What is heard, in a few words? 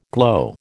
"glow", American